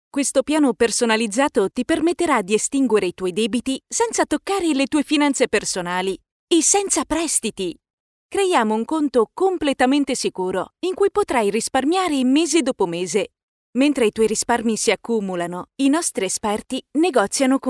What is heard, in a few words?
locutora italiana italian voiceover